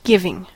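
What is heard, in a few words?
"giving", English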